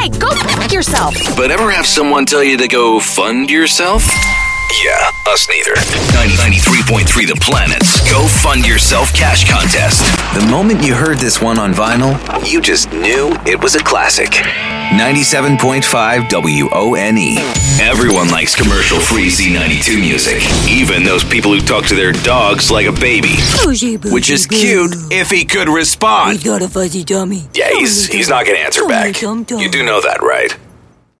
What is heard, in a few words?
imaging rock